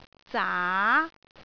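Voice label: "zaa2", Cantonese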